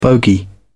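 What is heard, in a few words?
"bogy", British English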